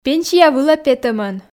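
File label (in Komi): пенсия вылӧ петӧмӧн, Komi, female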